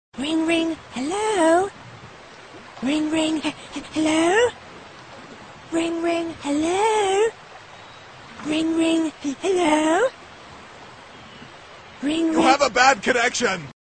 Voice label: hello im ringing, sms; ringtones

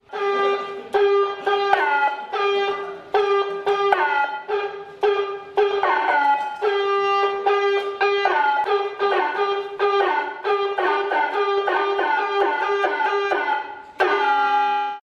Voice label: Megaphone

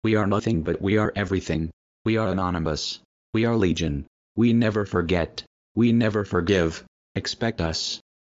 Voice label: Anonymous Voice

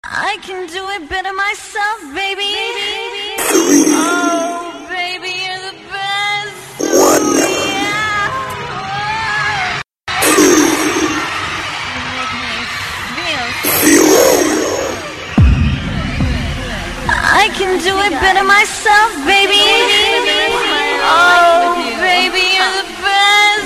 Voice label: hot voice; ringtones

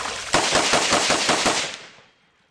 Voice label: English; Sugino ACR

